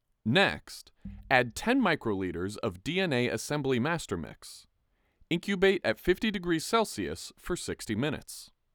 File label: Voiceover